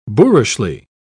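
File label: "boorishly", British English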